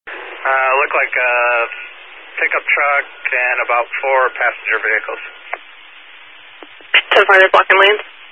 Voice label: Highway Patrol, scanner; DPS EAST; Dist 18 Phoenix Metro South Area